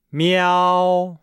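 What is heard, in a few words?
"miao", Chinese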